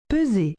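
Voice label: "peser", French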